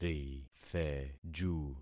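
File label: "ritheju", concatenated syllables; Affixing experiment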